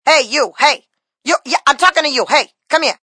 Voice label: Hey You Talkin, Female, attitude; VoiceBox